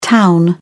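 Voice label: "town", British English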